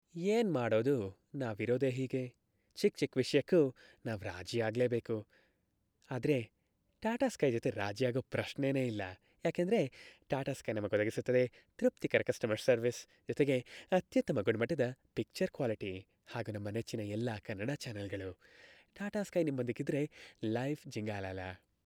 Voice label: Commercial; Tata Sky

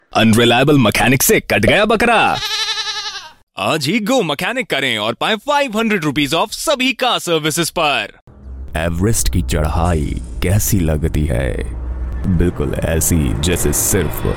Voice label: Hindi

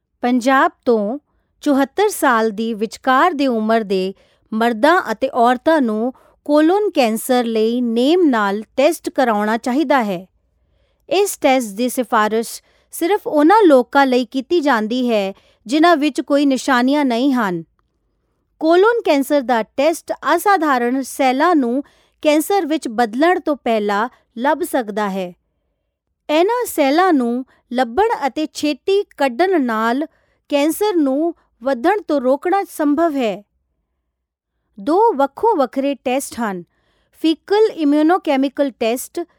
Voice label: Training Punjabi

